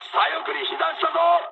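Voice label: left wing mood high, speech japanese; War Thunder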